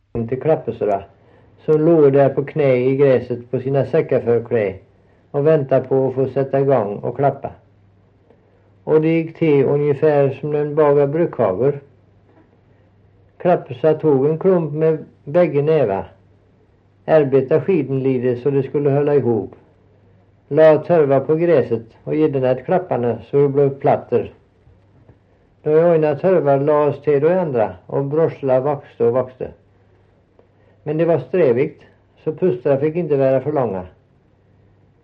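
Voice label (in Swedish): Stamnared Halland, dialekter; Folkminnen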